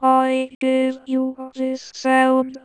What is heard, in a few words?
I Give You A This Sound, Mellow female